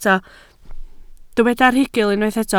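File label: "rhugl" Welsh, spoken word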